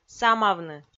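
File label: "самавны", Komi, female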